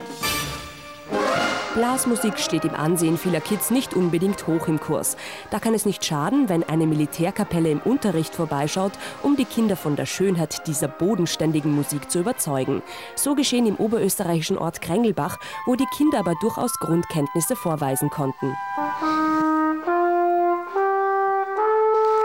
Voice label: News; Confetti